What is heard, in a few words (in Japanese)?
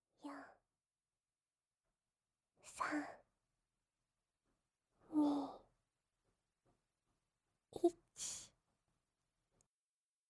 ささやき お仕事 サポート